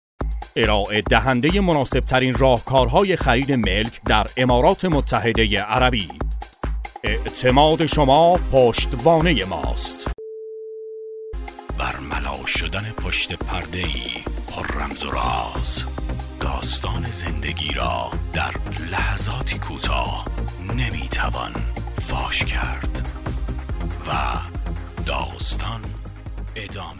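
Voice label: farsi male commercial; voice demos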